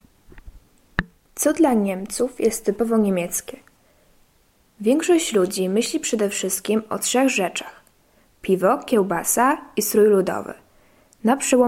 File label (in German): polnisch; Bier und Wurst